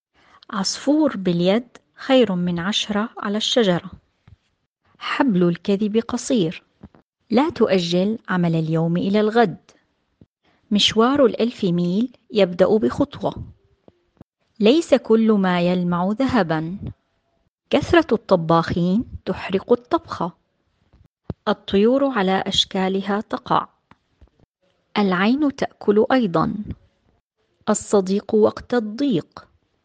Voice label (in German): Arabisch Redewendungen